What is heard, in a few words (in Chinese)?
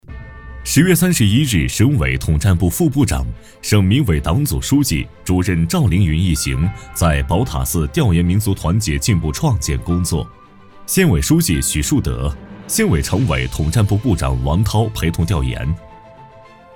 新闻播报 沉稳磁性 城市专题 男